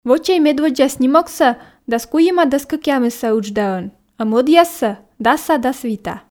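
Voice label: "вӧчӧй медводдза снимоксӧ 13x18 ыдждаӧн а мӧдъяссӧ 10x15", Komi, female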